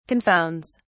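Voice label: "confounds", British English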